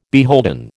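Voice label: "beholden", American English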